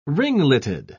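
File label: "ringleted", British English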